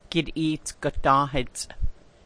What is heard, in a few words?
frybread, language class dictionary